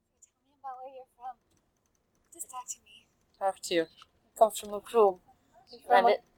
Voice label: Macroom Female 38 Religious Sister; Munster; south west ireland